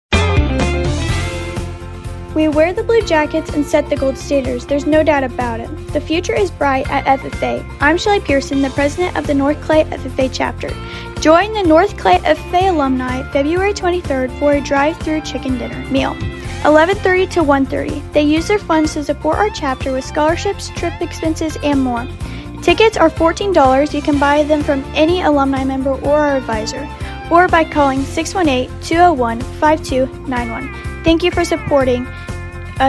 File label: female male; ffa week; north clay